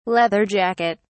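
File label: "leather jacket", English, British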